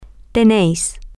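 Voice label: you all Spain have, Spanish, female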